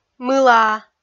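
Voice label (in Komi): "мылаа", Komi, female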